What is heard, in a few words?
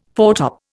"foretop", Indian